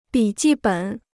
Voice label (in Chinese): "笔记本", Chinese